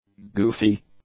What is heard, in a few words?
"goofy", english; adjectives